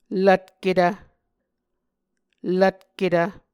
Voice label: "lvtketv", Muscogee